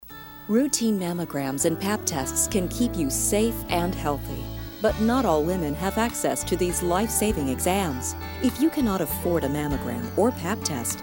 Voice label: radio spot; chronic; show me healthy women